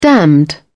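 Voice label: "damned", British English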